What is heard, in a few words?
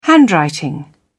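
"handwriting", British English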